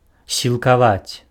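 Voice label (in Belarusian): "сілкаваць", Belarusian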